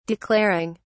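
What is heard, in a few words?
"declaring", British English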